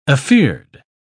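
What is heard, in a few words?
"afeard", English, uk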